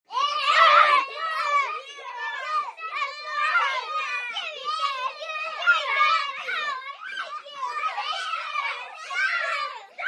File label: 6 Yr Olds Yelling Argu